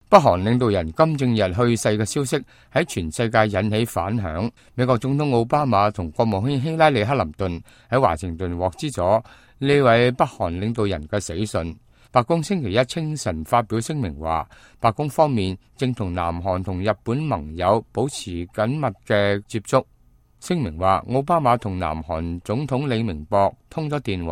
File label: cantonese, news